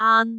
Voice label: "aan1", cantone